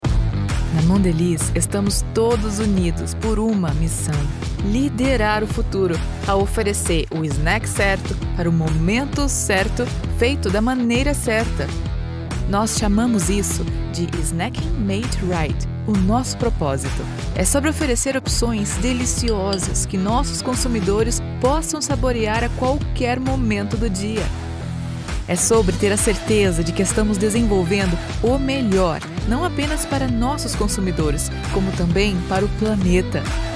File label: corporate doc sassy confident engaging female brazilian portuguese; voice; mondeleez